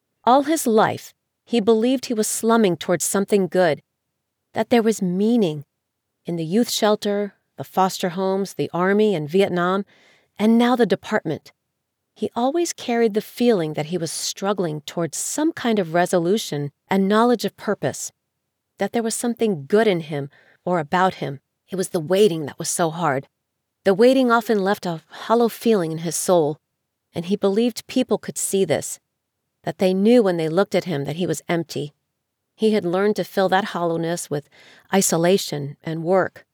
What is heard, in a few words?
Fiction Mystery, Narrator for Audio Books